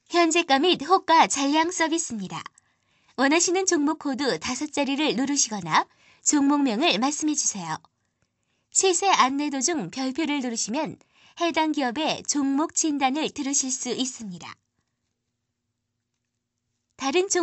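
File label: Korean, female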